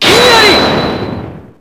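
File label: objection japanese; phoenix furio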